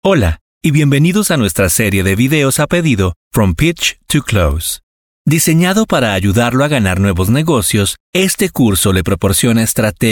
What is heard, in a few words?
spanish voice over male elearning